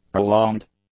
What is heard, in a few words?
"prolonged", english; adjectives